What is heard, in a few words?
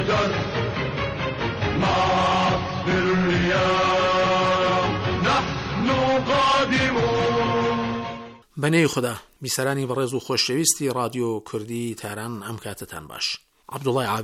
Sorani